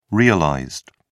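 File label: "realized", British English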